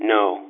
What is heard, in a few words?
"no" phone, phonemes